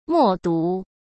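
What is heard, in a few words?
"默读", Mandarin Chinese; hsk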